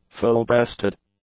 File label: "full-breasted", English; adjectives